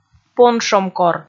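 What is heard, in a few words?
"поншомкор", Komi, female; kpv audio dict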